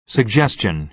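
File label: "suggestion", American English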